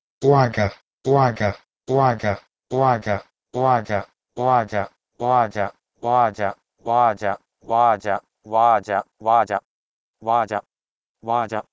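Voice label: Proto-Indo-European uh2ge to Persian buzurg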